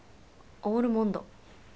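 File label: "almond", Japanese, female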